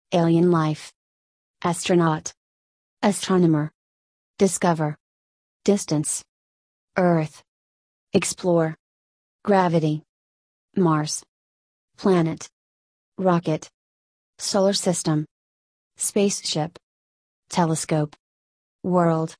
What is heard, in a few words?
usa